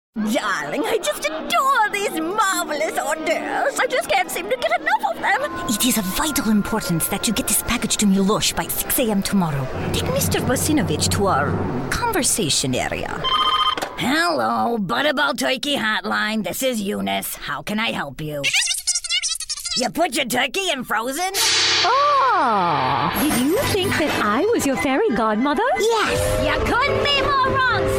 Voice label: Characters Female, commercial voiceover